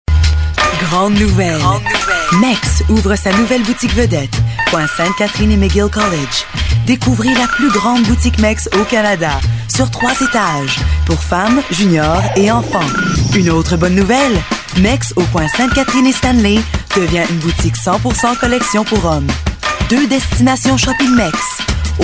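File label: french female voice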